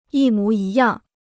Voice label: "一模一样", Chinese

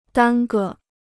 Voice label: "耽搁", Chinese

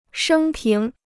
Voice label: "生平", Chinese

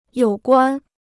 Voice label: "有关", Chinese

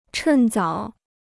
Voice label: "趁早", Chinese

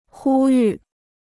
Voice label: "呼吁", Chinese

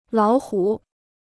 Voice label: "老虎", Chinese

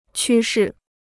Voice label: "趋势", Chinese

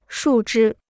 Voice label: "树枝", Chinese

